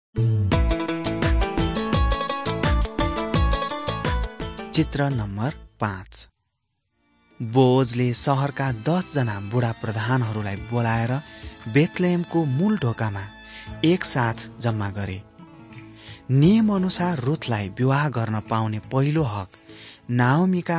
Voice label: servants of god nepali